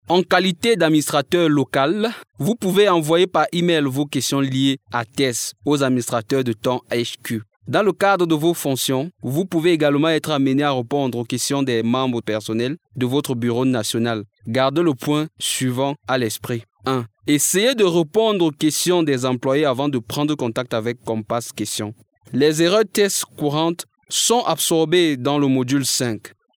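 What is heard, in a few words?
French, African, male, Cameroon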